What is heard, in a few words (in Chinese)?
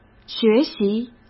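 "学习", Mandarin Chinese; hsk; chinese flashcards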